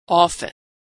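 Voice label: "often", American English; vowel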